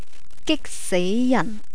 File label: "gikseijan", Cantonese; feeling